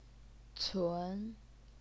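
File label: "cun", second tone, Chinese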